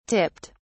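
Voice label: "tipped", English, British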